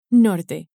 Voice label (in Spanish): "norte", Spanish